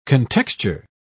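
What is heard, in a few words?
"contexture", British English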